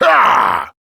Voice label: Angry; Warframe; Tyl Regor Boss; Efforts